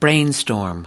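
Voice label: "brainstorm", US English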